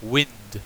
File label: "wind", en